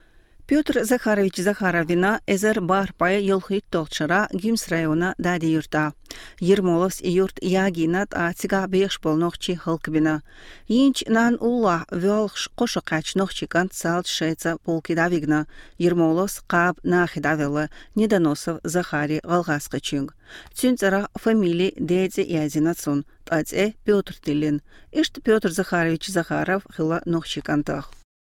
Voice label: CHECHEN

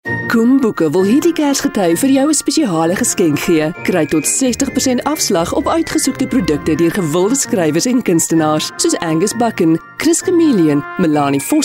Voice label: Afrikaans Female

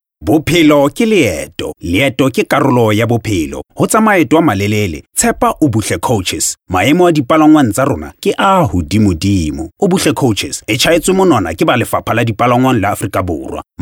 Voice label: SESOTHO MALE

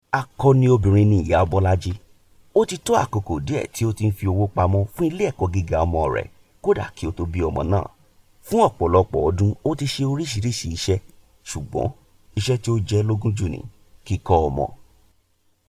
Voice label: Yoruba

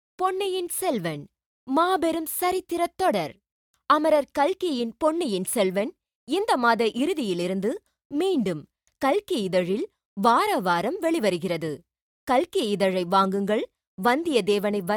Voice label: Tamil narrative

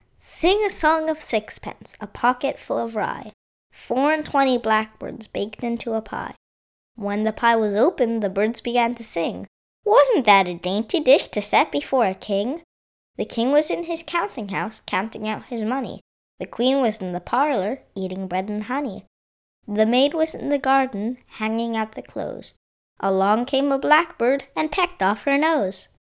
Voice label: US Male

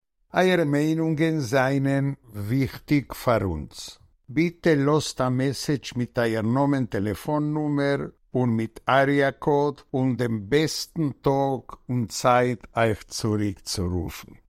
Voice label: Yiddish

